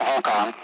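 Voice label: Speech Sound Russian Common Allied Flight; AirDefence; Vulcan; DCS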